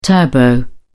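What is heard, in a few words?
"turbo", British English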